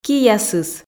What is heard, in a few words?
"киясыс", Komi